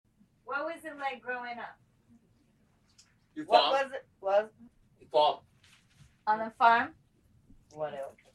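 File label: USA South Carolina Cedar Grove Gulla Male 80s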